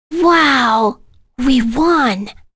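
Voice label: Vox Victory, voices heroes English; kingsraid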